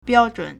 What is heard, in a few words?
"biao1zhun3", Chinese